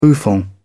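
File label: "bouffant", British English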